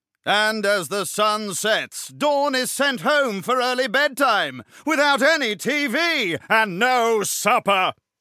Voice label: narrator; Danger Mouse - Ultimate

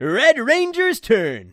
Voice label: announcer; red ranger; Nick Summer Sports Stars

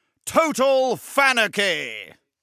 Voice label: narrator; Danger Mouse - Ultimate

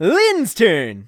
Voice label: announcer; lynn; Nick Summer Sports Stars

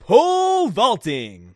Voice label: announcer pole vaulting; Nick Summer Sports Stars

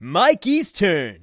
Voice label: announcer; Nick Summer Sports Stars

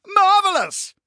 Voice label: narrator ui; Danger Mouse - Ultimate

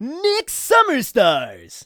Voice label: announcer title; Nick Summer Sports Stars

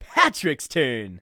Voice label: announcer; patrick; Nick Summer Sports Stars

